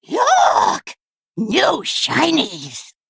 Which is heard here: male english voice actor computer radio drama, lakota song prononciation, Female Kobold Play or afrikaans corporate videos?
Female Kobold Play